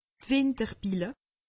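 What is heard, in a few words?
"winderbille", Alsatian; medecine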